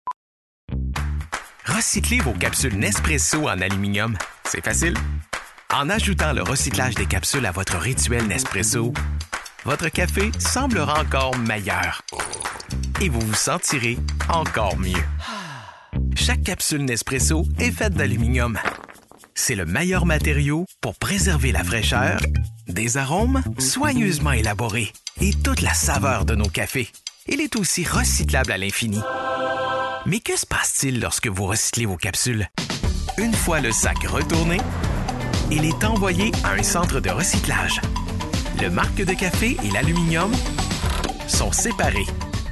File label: french canadian narration